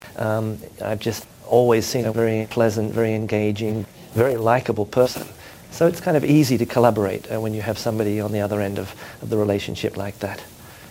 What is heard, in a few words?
mic Spits cleanup, Dialog noise cleanup example; Cleanup 1, after basic editing and processing